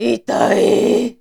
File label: horror "itai"